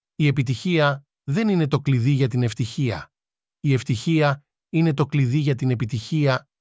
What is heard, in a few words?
el greek, spoken language